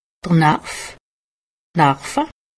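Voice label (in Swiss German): "narv", Haut Rhin; medecine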